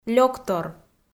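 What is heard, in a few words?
"лёктор", Komi, female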